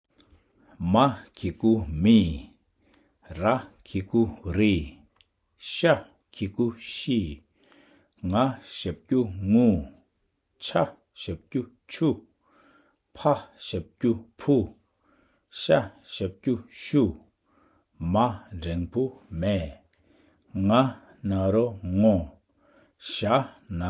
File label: Single Letter words with vowels, basictibetan